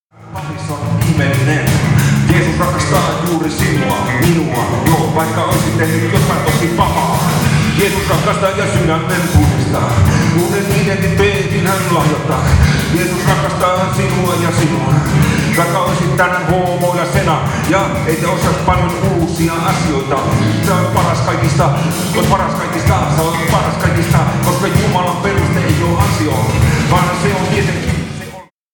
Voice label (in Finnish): Rap; saarna